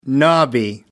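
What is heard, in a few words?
"knobby", English, British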